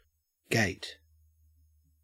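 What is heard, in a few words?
"gate", Australian English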